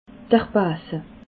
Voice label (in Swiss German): "base", alsace